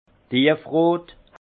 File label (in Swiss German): "tiefrot", alsace; vin; rubis